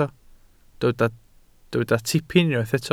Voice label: "tipyn", Welsh

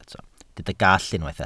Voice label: "gallt", welsh

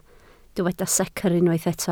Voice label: "secr", Welsh

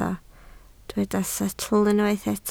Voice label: "setl", Welsh

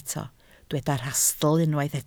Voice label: "rhastl", Welsh

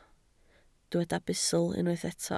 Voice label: "bustl", Welsh

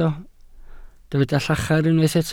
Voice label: "llachar", Welsh

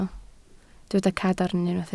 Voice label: "cadarn", Welsh

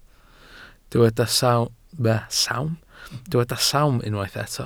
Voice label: "sawm", welsh

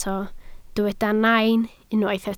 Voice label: "nain", Welsh

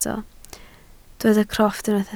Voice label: "crofft", Welsh

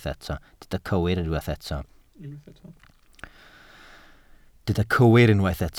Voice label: "cywir", Welsh

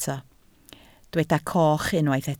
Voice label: "coch", Welsh

